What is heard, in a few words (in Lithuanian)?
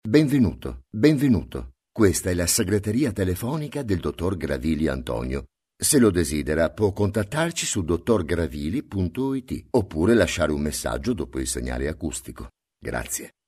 VYR Italu balsas, vyras